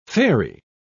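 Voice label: "faerie", British English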